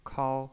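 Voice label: "kao", first tone, pinyin voice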